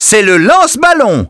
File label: waterballoon welcome vo, French; Birthday Party Bash; Wii